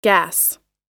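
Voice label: "gas", American English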